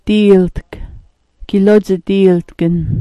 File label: "diiltk", smalgyax